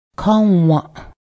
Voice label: boat, Cayuga; káǫ:waˀ